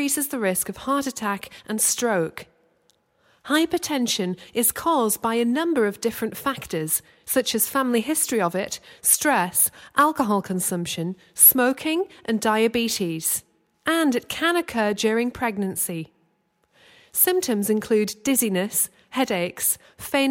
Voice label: Medical Narrative High Blood Pressure

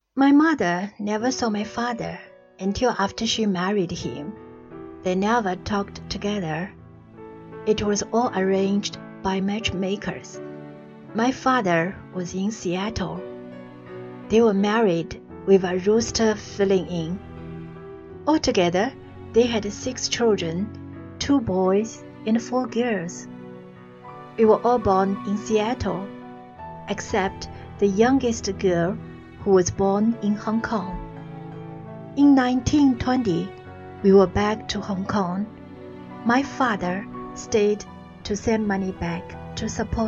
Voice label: Foreign Language

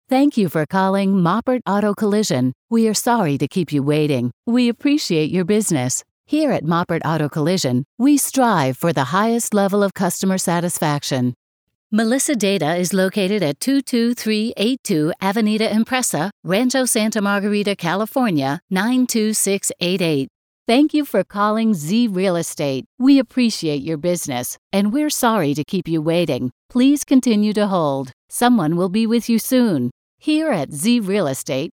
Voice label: Telephony Sampler

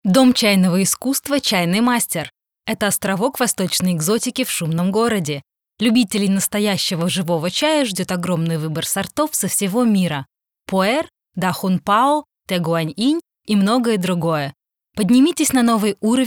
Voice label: RU Advertisement; Tea house

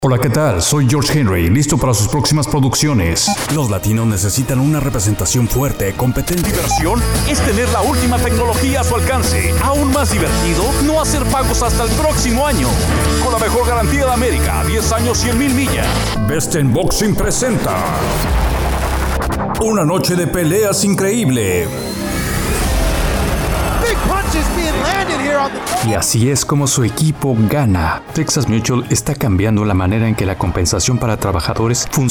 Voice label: SPANISH

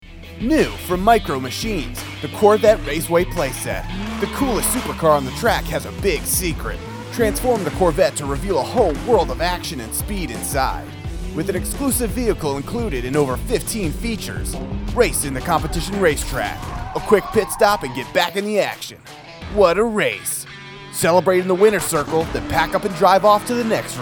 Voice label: Car Spot with SFX

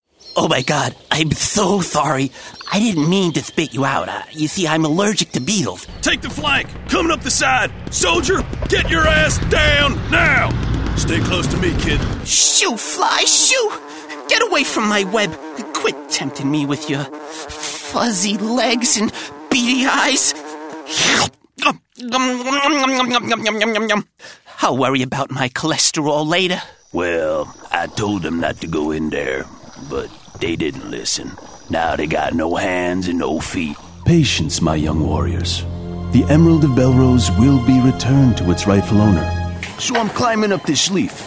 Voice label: Animation

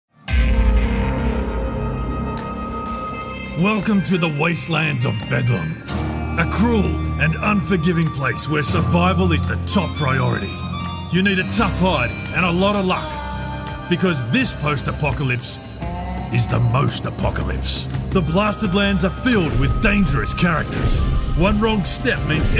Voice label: Launch Trailer; Skyshine's BEDLAM

